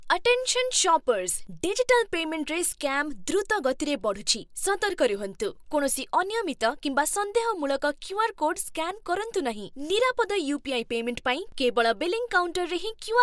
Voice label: Radio; OR; GoLocalise